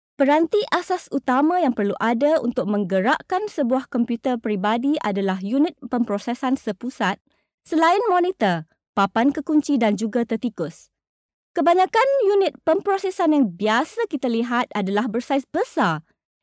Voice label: Nachvertonung Film Sprecherin Malaiisch

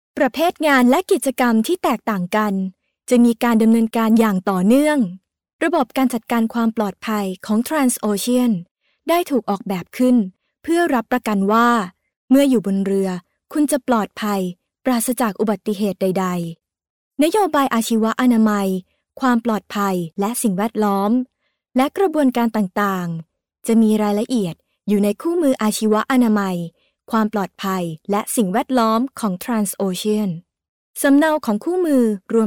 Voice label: Film Sprecherin Thai; Nachvertonung Film

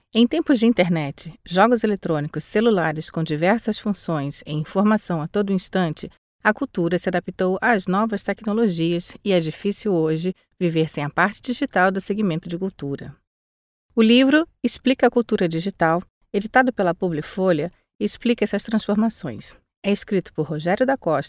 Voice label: Nachvertonung Film Sprecherin Brasilianisches Portugiesisch

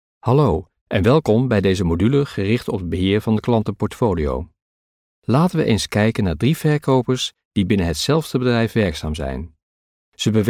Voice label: Nachvertonung Film Sprecher Niederländisch

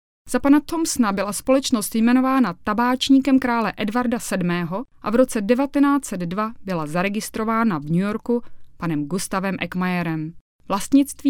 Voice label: Sprecherin Tschechisch; Nachvertonung Film

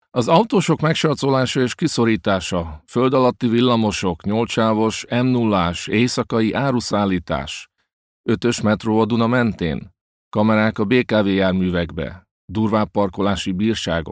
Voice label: Sprecher Ungarisch; Nachvertonung Film

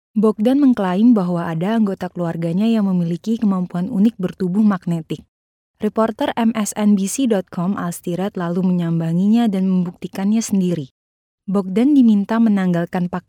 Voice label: Nachvertonung Film Sprecherin Indonesisch

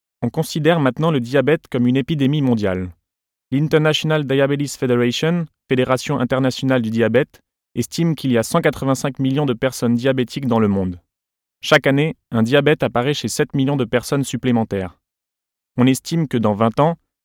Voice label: Nachvertonung Film Sprecher Französisch

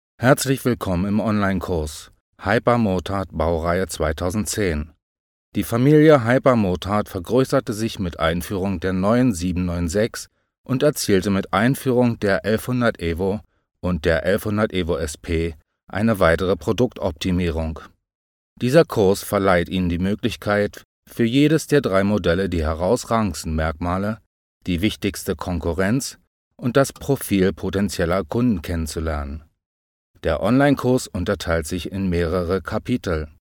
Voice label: Film Sprecher Deutsch; Nachvertonung

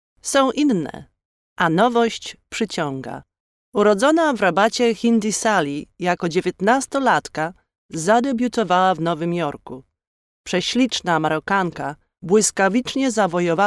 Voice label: Nachvertonung Film Sprecherin Polnisch

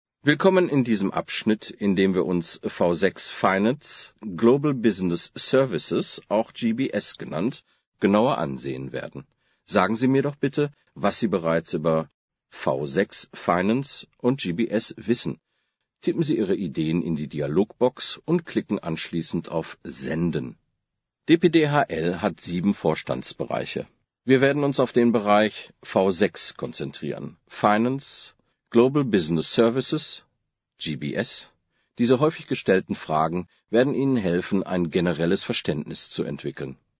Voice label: Nachvertonung Film Sprecher Deutsch

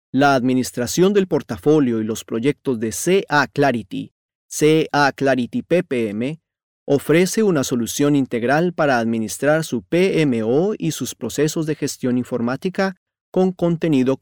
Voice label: Sprecher Spanisch LA; Nachvertonung Film

